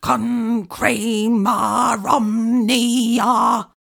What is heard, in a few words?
concrema omnia slow, incantations older female fire spells